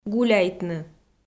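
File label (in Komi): "гуляйтны", Komi, female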